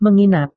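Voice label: "menginap", Indonesian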